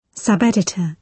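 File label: "sub-editor", British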